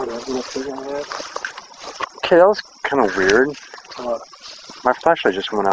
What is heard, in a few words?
noise then me saying flashlight went out; Goodrich Cemetery; Can you see him